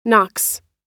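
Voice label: "knocks", American English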